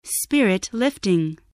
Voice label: "spirit-lifting", English voice

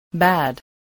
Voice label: "bad", english

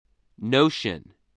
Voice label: "notion", voice, spelling

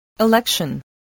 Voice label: "election", English

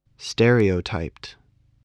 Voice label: "stereotyped", voice

